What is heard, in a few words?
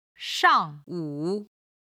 "shang4wu3", Chinese